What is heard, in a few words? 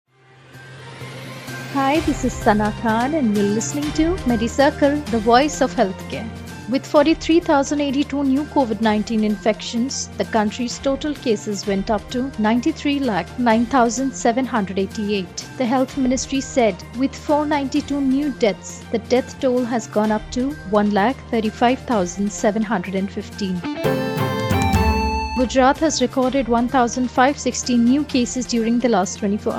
english news